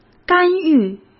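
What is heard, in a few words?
"干预", Mandarin Chinese; chinese-flashcards; hsk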